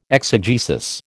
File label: "exegesis", American